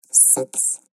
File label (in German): zahlen englisch vocoder 2